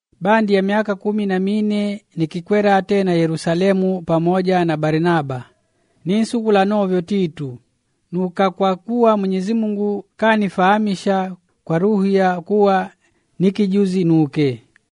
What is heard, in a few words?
Mwani